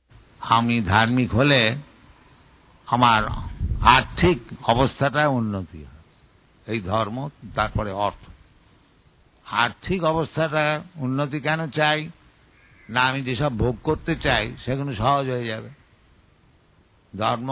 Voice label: Bengali; MAYAPUR; srilaprabhupada; lectures mayapur